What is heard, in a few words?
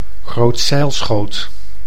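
"grootzeilschoot", Dutch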